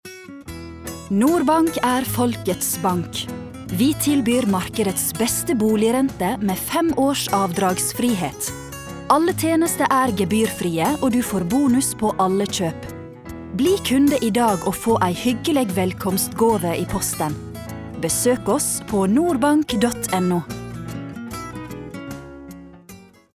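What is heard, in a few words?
Norwegian commercial nordfjordsk nynorsk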